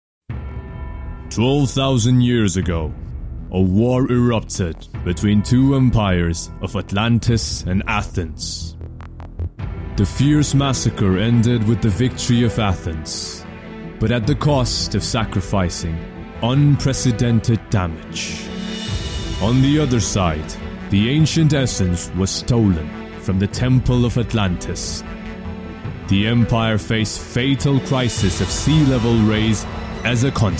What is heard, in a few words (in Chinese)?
美式男声, 浑厚激情